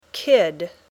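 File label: "kid", English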